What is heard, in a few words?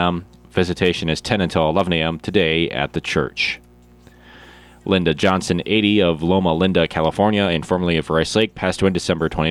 Funeral Announcements